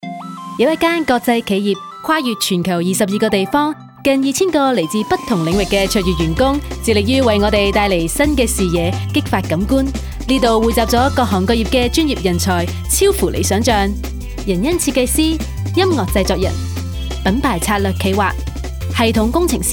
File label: Commercial